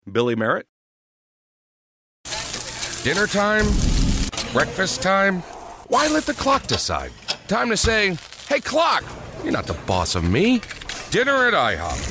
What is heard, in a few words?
commercial; Innovative LA